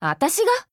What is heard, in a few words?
Voice JP; Phaetusa